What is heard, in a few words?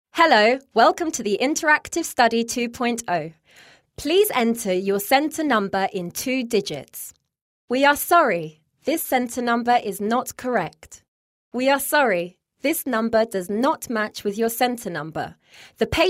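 British English, IVR; GoLocalise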